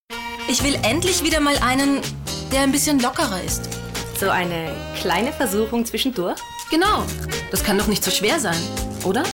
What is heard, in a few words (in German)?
Frau